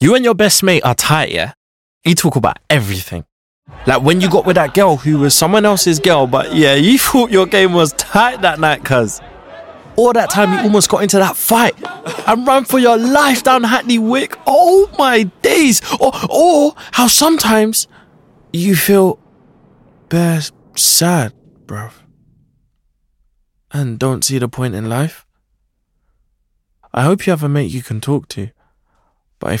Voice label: Calm